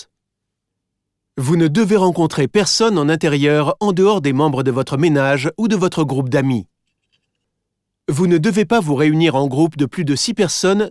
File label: FRENCH Covid London Alert Very High